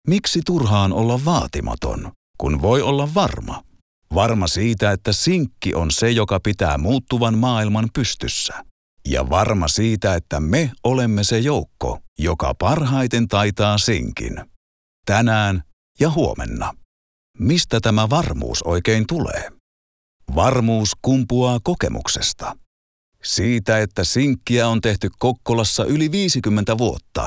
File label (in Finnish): Yritysdemo